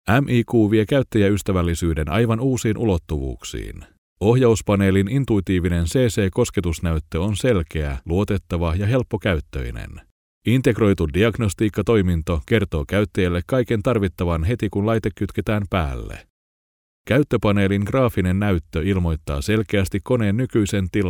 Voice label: finnish male elearning applicance